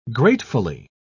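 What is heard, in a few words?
"gratefully", British English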